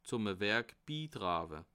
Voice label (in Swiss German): "bitrawe", alsace